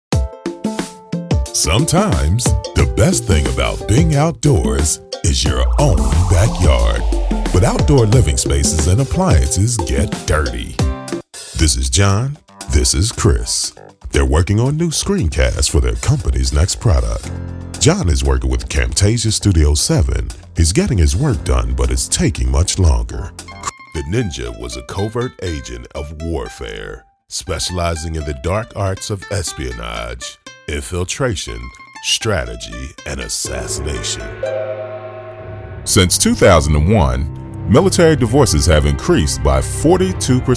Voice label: Voice Over Artist; Philadelphia